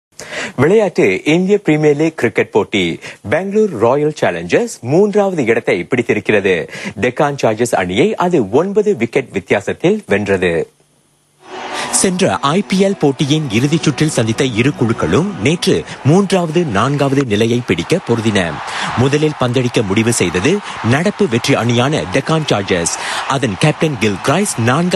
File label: Sports Tamil Male; India